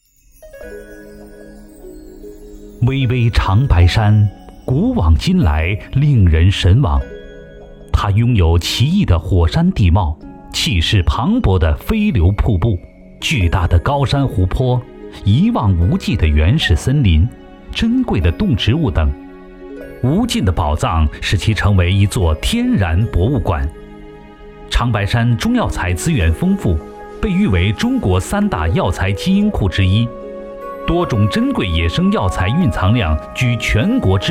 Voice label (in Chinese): 男 医疗专题; 长白山中药材